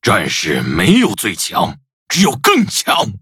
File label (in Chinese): levelup, zh-hant; cookie run